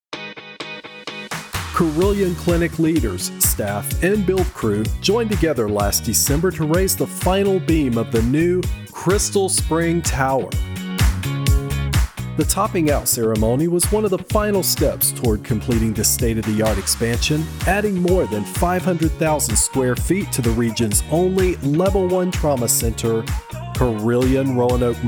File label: Announcement